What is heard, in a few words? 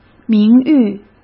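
"名誉", Mandarin Chinese; chinese flashcards; hsk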